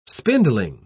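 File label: "spindling", British English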